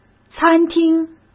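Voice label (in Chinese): "餐厅", Mandarin Chinese; hsk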